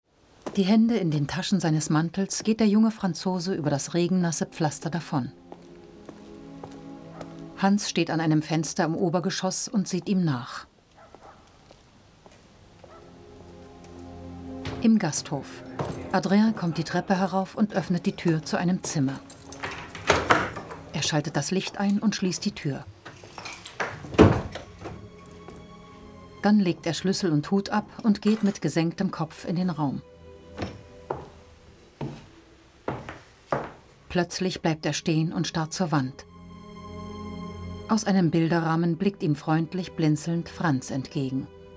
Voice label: stimmprobe ad